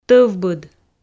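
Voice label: "тӧвбыд", Komi, female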